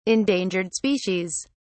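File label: "endangered species", British English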